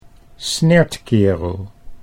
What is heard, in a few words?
"snertkerel", Dutch